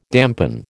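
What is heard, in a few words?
"dampen", American English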